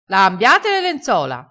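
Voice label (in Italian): "lenzola", crespinese dialettale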